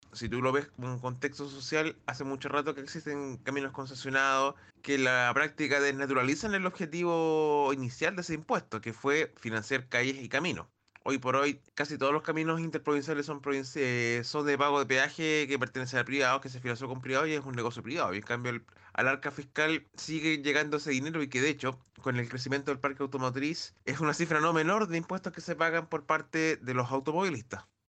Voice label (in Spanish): reportaje; radiouc; El 2 de mayo comenzó a regir la restricción vehicular impulsada por el Gobierno de Sebasti